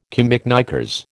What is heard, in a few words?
"camiknickers", American